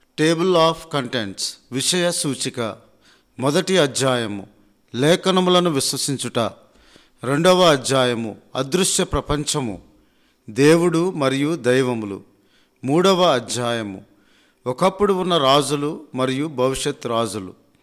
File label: supernatural, telugu